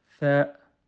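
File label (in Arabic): "ف", Arabic; quiz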